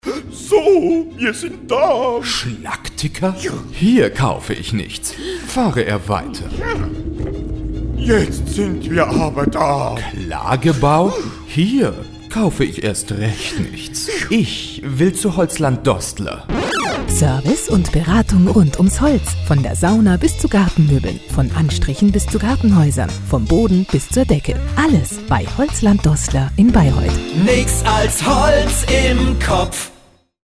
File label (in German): Werbespots